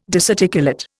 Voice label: "disarticulate", Indian